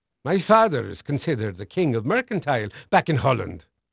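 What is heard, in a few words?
Dutch male, voice; Pirates Of The Caribbean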